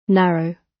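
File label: narrow, British; Study, education, research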